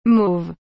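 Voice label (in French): "mauve", French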